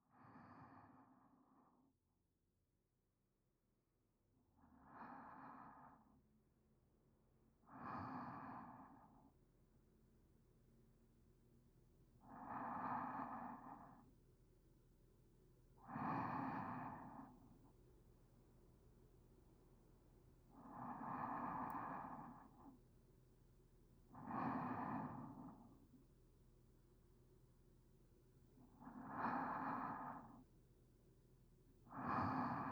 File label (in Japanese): 二日目の添い寝 ピロートーク